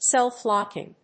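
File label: "self-locking", English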